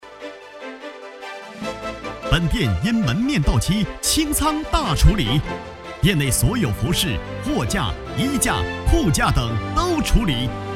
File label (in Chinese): 广告清仓大甩卖